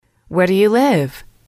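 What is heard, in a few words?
"where do you live", English, female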